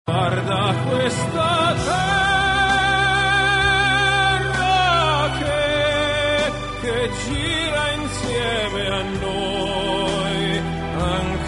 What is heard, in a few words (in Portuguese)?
Telemensagem Homenagem Mae (Fem); Dia das mães; Datas importantes